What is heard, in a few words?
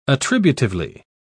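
"attributively", British English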